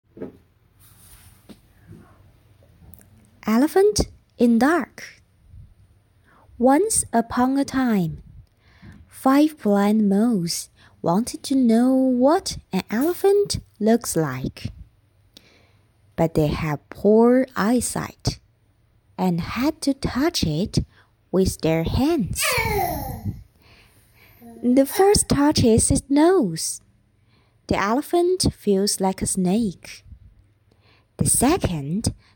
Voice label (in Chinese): 英文版 试读